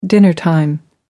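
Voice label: "dinnertime", British English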